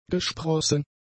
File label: "gesprossen", German; adjectives